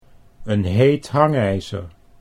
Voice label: "'n heet hangijzer", Dutch